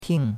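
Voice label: "ting4", Mandarin Chinese; Cours-de-Chinois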